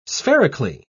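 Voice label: "spherically", British English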